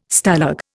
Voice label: "Stalag", Indian